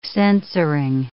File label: "censoring", English